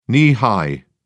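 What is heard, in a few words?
"knee high", English, British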